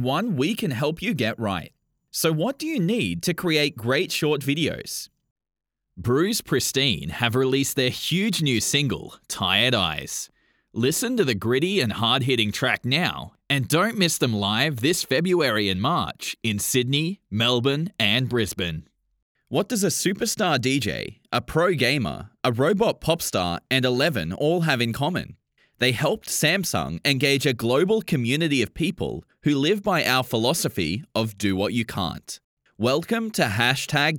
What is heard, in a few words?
Australian